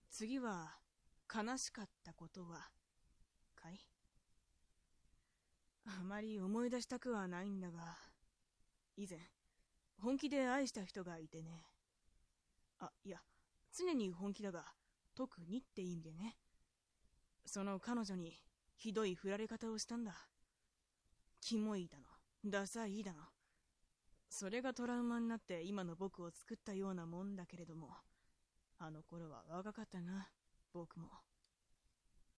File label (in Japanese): dansei